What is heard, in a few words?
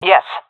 affirmation, seal fem; vo; Counter Strike Model Pack; CS:GO